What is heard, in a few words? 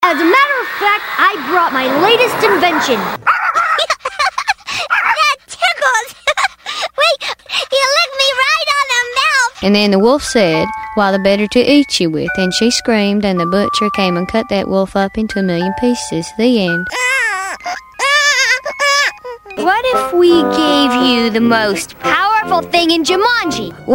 Animation VO